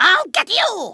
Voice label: "I'll get you", Kamikaze speech; Worms Armageddon